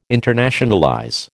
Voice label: "internationalize", American